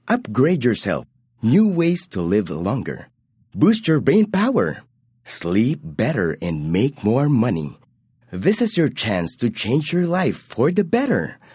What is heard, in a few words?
English (Selling)